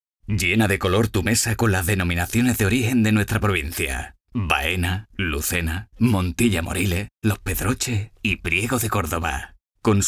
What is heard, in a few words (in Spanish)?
Andaluz Voz masculina media